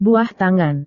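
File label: "buah tangan", Indonesian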